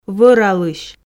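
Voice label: "вӧралысь", Komi, female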